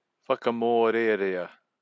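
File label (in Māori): "whakamorearea", Māori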